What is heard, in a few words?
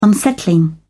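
"unsettling", British English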